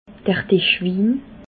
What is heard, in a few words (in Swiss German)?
"dischwin", alsace